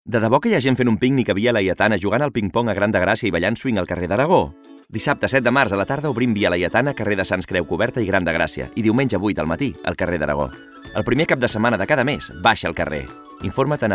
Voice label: male catalan event street; ideasonora